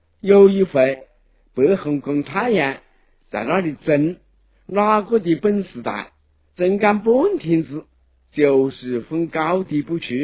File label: Changsha